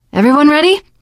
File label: ask ready, survivor voice teen girl; source engine; left 4 dead 2